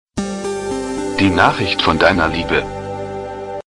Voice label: german sms von liebe